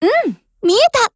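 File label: PHRASE, Japanese; Glock17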